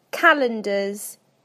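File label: "calendars", British English; PictureDictionary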